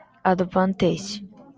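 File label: "advantage", Japanese, female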